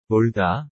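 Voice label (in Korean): "놀다", Korean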